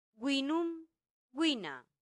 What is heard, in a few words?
"vinum vina", latin; santillana